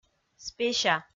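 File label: "спеся", Komi, female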